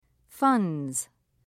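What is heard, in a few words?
"funds", British English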